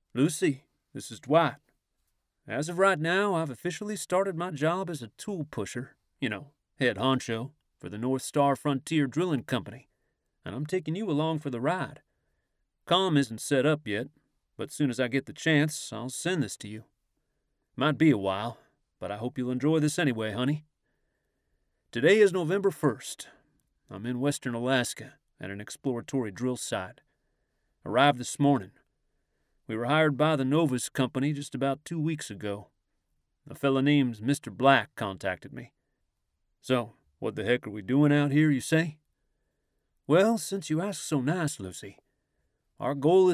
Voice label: Accent Texas; Audiobook Narrator